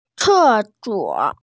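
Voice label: "ce4suo3", Mandarin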